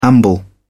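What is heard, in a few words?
"amble", English, British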